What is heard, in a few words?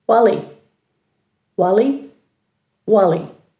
"wali-", Dhurga